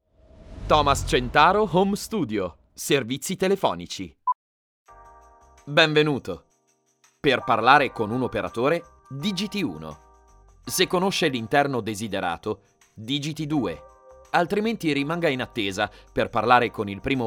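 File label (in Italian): IVR, Native Italian Voice Over Artist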